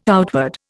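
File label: "outward", Indian English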